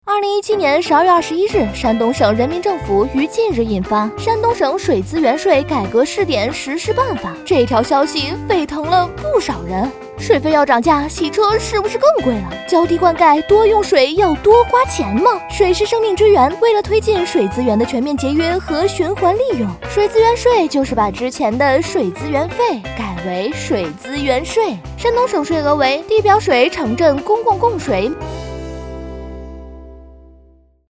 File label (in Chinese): 女; 飞碟说